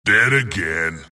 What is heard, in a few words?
wraith death; dota; skeleton king